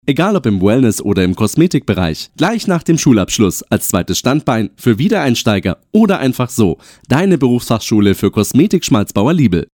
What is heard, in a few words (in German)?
Werbung Reminder; Schmalzbauer Liebl